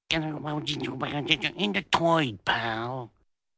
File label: indiscernible whispering